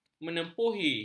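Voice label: "menempuhi", Malay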